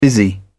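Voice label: "busy", English, British English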